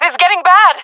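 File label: request help, female voice; player vo jungle fem; Counter Strike Model Pack; CS:GO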